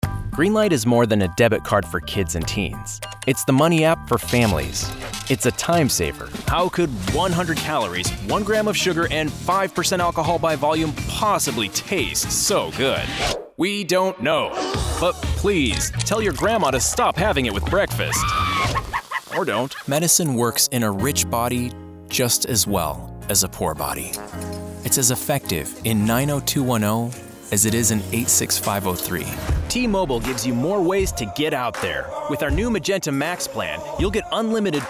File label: COMMERCIAL